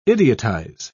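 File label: "idiotize", English, British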